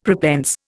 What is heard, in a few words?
"prepense", Indian; Dictionary